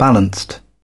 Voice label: "balanced", British

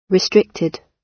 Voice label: "restricted", gb

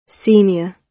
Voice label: "senior", gb

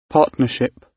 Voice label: "partnership", gb

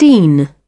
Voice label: "dean", British

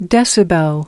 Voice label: "decibel", us

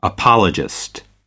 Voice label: "apologist", us

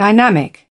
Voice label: "dynamic", British

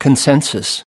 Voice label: "consensus", US pronunciation

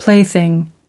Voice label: "plaything", us

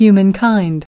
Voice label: "humankind", us

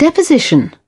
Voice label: "deposition", British

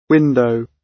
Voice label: "window", gb

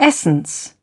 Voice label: "essence", British

